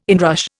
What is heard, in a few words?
"inrush", Indian